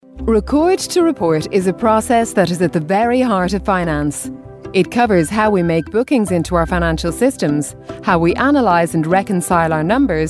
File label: irish female corporate